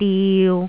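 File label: "diu3", Jyutping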